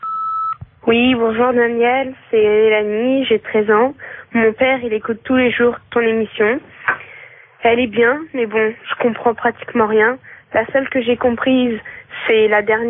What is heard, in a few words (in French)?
Contes pour enfants répondeur